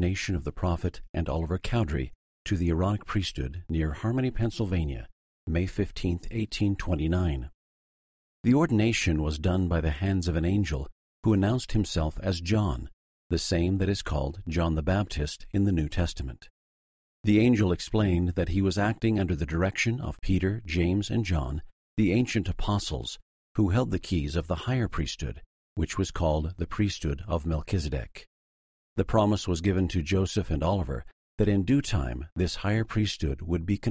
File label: section female voice eng; the doctrine and covenants